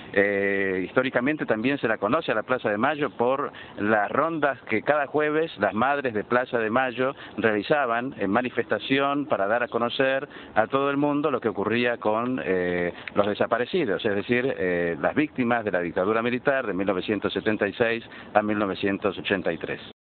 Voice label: Argentina Buenos Aires Male